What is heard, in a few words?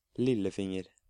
"Lillefinger", Norwegian